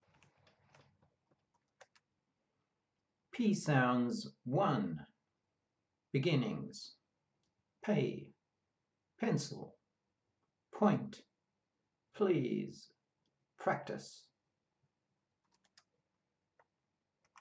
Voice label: P sounds, pronunciation of australian english